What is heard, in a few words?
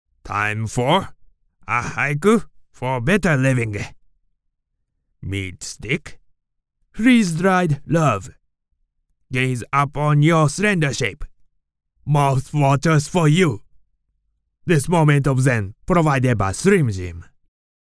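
Japanese, male, english; English Demo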